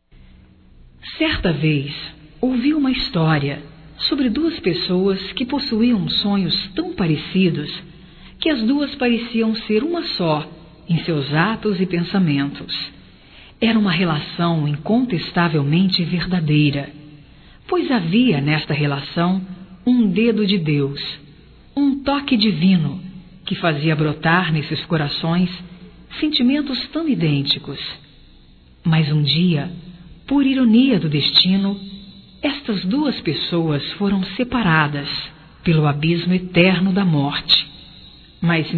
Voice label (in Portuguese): Pêsames Geral Feminino, Telemensagens, Só Voz; Telemensagens 2017